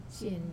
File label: "sien" god, Wamesa